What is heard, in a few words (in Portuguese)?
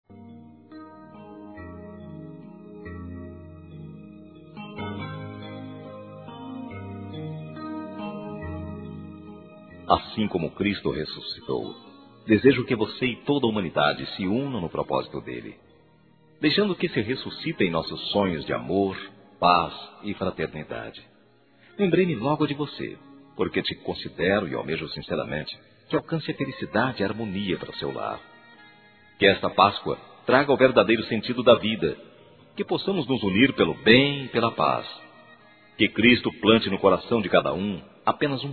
FELIZ PASCOA (NEUTRO)(VOZ INFANTIL)